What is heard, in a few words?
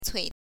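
"cui", Mandarin Chinese, neutral tone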